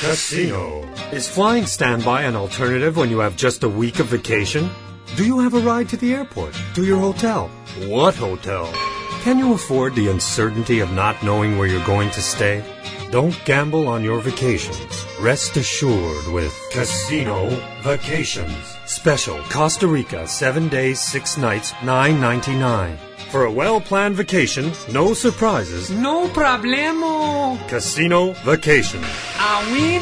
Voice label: Voice Demo; men